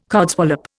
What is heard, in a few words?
"codswallop", Indian